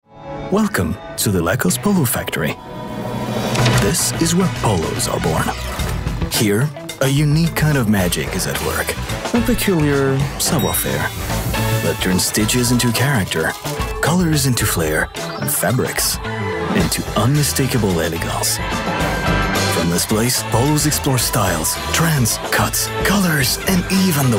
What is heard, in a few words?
Commercial (EN)